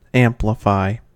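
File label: "amplify", American English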